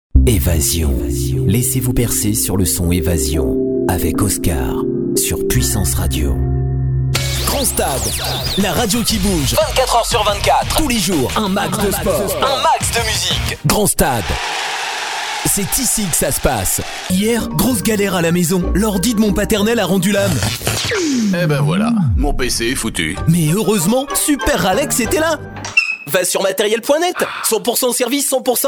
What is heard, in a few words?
french commercial